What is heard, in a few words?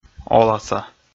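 "оласа", Komi, male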